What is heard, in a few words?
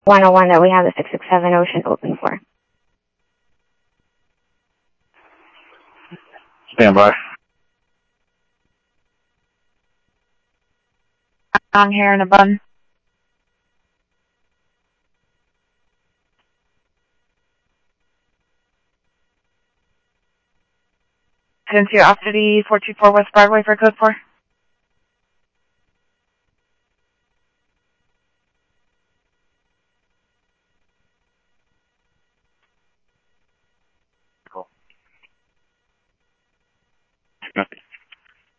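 Lakes Sheriff Lake Patrol, scanner audio trunk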